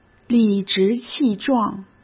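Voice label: "理直气壮", Mandarin Chinese; chinese flashcards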